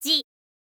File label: girl